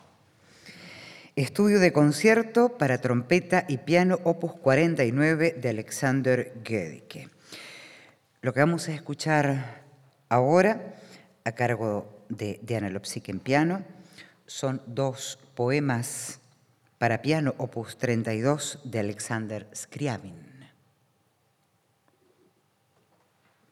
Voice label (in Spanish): locutora